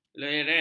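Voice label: "lereng", Malay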